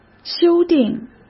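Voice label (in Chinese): "修订", Mandarin Chinese; hsk; chinese-flashcards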